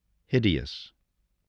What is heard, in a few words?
"hideous", English, spelling